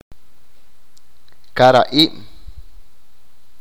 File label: fishes, achi